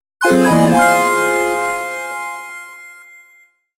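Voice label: taunt, English; PaxSona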